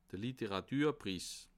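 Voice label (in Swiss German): "literaturpriss", Bas Rhin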